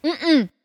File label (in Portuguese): Negaçao intensa